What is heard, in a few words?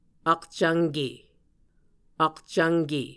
"akcvnke", Muscogee